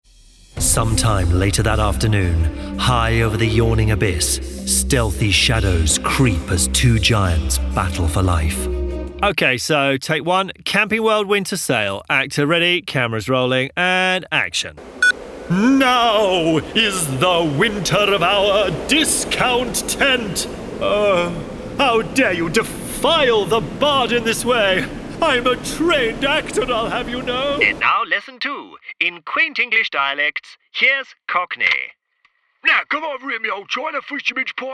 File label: character voiceover